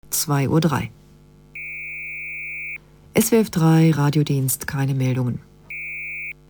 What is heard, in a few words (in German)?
Verkehrsfunk keine Meldungen; swf3